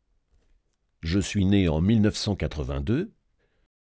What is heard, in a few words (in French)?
narratif calme